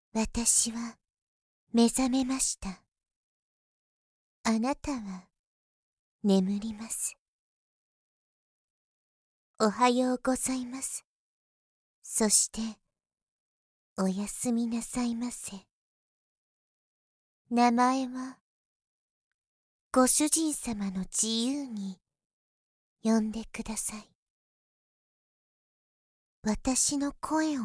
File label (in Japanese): 催眠導入; 無意識に繋がる世界へ